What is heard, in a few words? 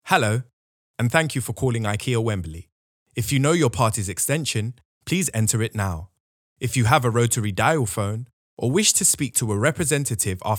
Phone Prompt